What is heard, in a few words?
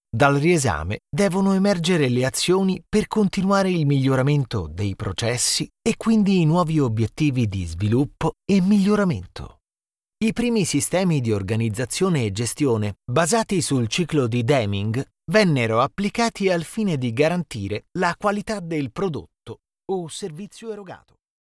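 Italian Male Institutional Documentary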